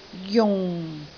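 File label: "jung4", Cantonese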